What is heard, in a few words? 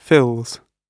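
"fills", British English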